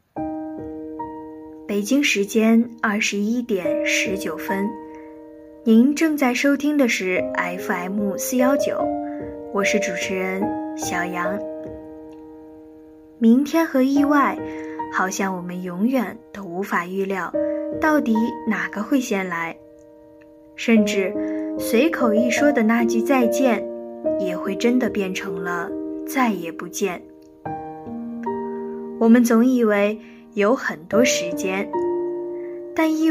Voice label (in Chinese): 电台